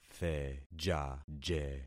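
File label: "thejaje", concatenated syllables; Affixing experiment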